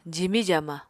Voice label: "jimi-jaama", Apatani

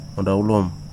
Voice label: "leaf", Wahgi; lae unitech

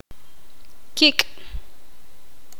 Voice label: poqomam; sangre

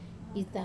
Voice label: "ta", be like; wamesa

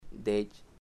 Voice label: sun, chamacoco

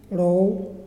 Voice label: cloud, Muyuw; Lae Unitech

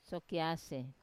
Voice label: "sokiase", to move, wamesa

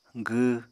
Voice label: "gw", Apatani; [] v. wear clothing; pregnant

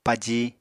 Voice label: "paji", Apatani

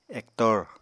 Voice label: "ektor", Apatani

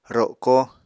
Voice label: "roko", Apatani; Material Culture

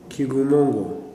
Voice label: "heart", Kuman

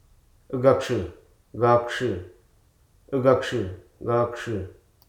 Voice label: "jaw", Hruso

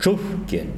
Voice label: "cenizas", Tsesungun

